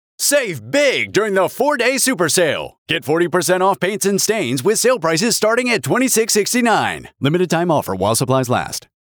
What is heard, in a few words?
Sale Ad